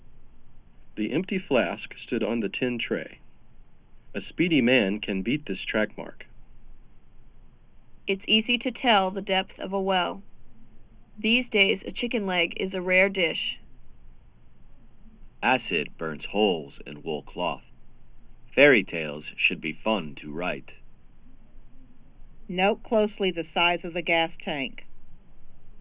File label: single mic No Background Noise; mic array tablet